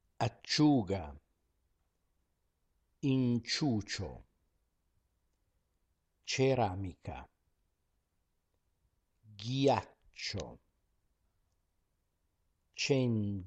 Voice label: pronunciation practice